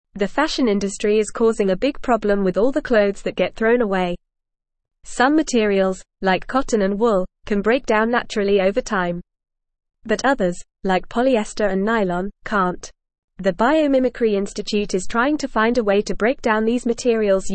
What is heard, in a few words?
English Upper Intermediate FAST Reading; Biomimicry Institute Designing Natural Breakdown fo; English Newsroom